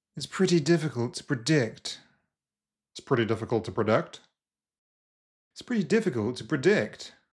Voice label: SSBE GA